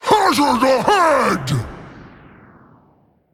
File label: Male Elemental Attack